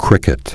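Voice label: "cricket", English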